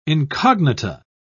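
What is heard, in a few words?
"incognita", British English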